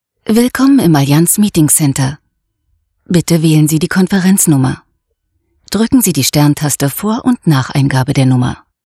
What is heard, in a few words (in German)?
Telefonansage; Allianz